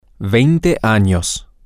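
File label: twenty years old, Spanish, male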